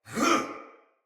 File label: Attack, Soldier Male; Battle; Barks; Dialog; Sinecure Soldier Male B